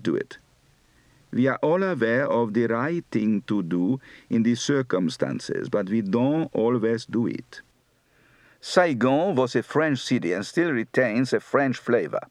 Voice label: Vietnam, Accent

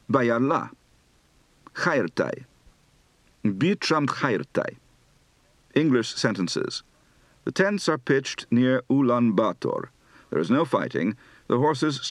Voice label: Mongolian, Accent

